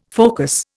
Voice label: "focus", Indian

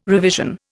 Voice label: "revision", Indian

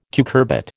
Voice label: "cucurbit", American

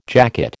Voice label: "jacket", American

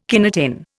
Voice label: "kinetin", Indian

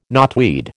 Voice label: "knotweed", American

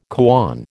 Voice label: "koan", American

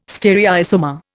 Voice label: "stereoisomer", Indian

